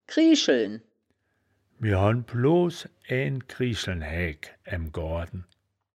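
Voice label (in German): "krieschel", wittlicher woerterbuch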